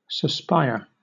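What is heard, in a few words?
"suspire", British English; pronunciations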